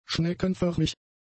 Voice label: "schneckenförmig", German; adjectives